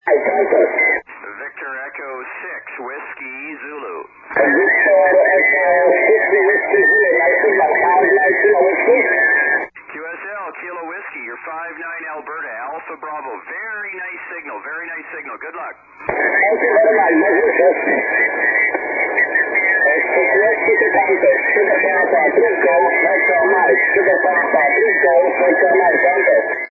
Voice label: 80m SSB